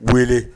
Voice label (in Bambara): "wele", Bambara